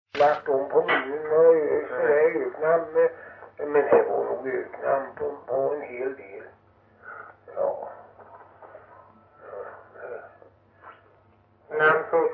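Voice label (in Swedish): Hulterstad Öland, dialekter; Folkminnen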